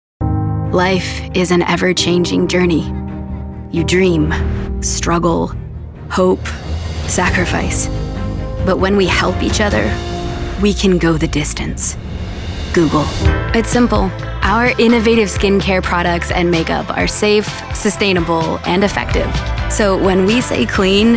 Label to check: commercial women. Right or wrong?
Right